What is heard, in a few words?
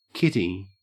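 "kitty", Australian English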